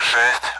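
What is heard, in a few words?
Speech, Russian, AWACS; Digits; 6; DCS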